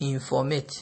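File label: "informate", Nigerian Pidgin, male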